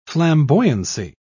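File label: "flamboyancy", British English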